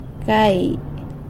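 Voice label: "gai" five, San Lucas Quiaviní Zapotec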